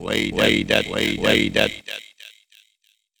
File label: VOICEFX TEKNO 140, Techno, Voice